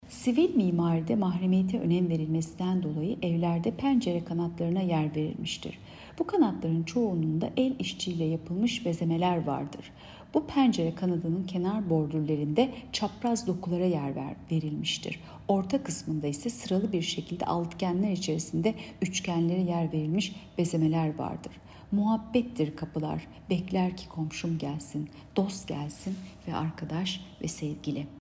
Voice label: turkce